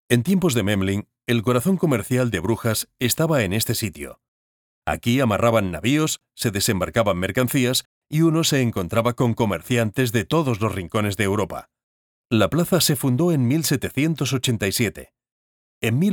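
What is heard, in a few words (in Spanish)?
generico España; Idioma: Español (o castellano); Género: Masculino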